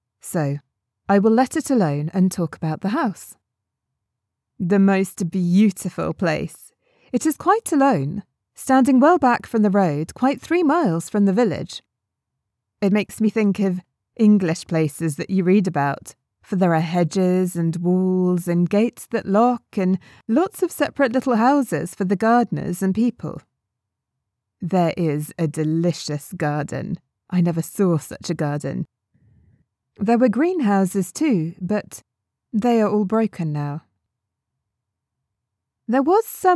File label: narration; the yellow wallpaper